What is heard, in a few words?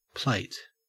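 "plate", Australian English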